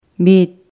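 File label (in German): "unten", German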